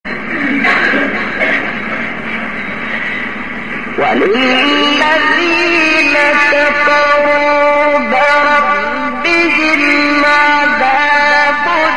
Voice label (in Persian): تلاوت زیبای آیه 6 7 سوره ملک